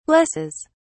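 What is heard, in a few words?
"blesses", British English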